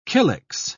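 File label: "kylix", British English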